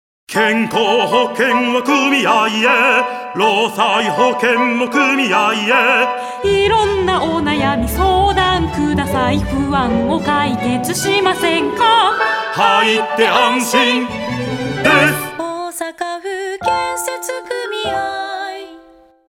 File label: radio; osaka